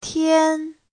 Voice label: "tian1", Chinese